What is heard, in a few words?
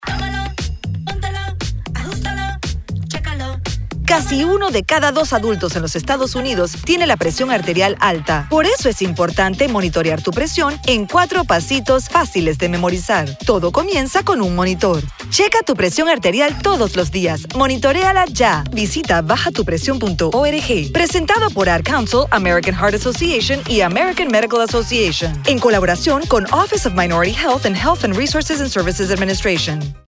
Spanish Radio